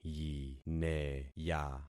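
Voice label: "yineya", concatenated syllables; Affixing experiment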